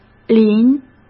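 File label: "临", Mandarin Chinese; chinese flashcards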